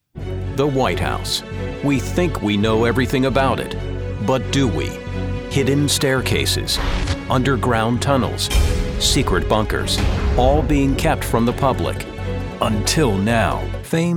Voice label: Documentary In Show Narration

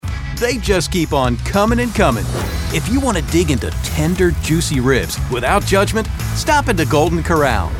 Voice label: Commercial High Energy Enthusiastic Energetic

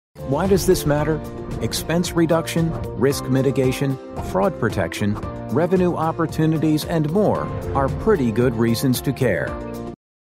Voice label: Explainer Professional Informative Mature

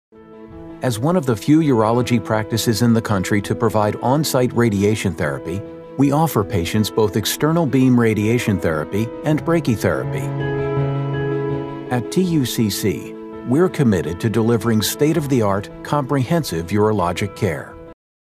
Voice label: Medical and Healthcare Credible Caring Heartfelt

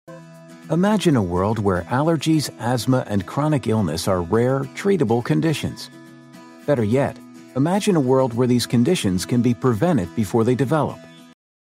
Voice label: Explainer Caring Approachable Friendly

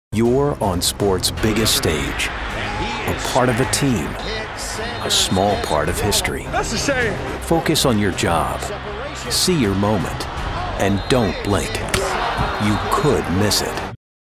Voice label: Documentary Bold Attitude Deep

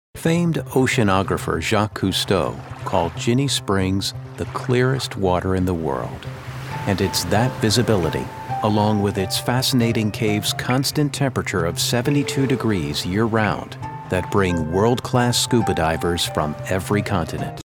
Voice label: Documentary Earnest Heartfelt Intimate

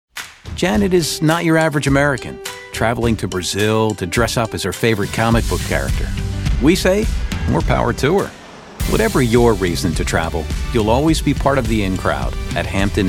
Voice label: Commercial Casual Storyteller Good Natured

